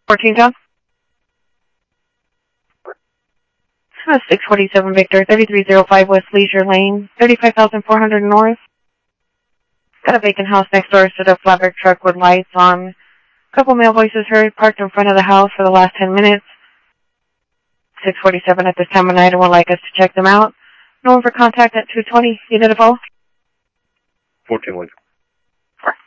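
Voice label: Phoenix police department, scanner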